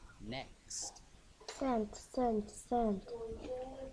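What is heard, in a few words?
"cent", spoken word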